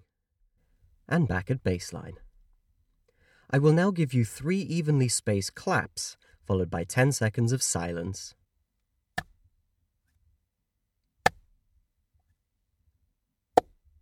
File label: Real Studio Sample